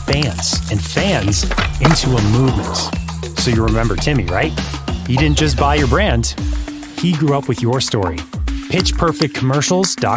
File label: Commercials; voiceovers